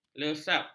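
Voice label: "lesap", Malay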